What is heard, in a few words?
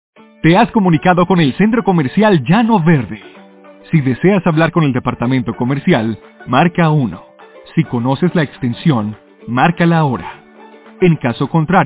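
Latin American Spanish, IVR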